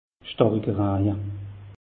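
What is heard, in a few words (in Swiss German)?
"stariker", Alsatian; meteo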